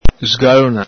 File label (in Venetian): "sgalona", Veneto